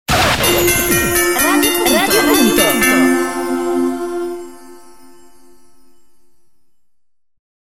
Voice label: promo XMAS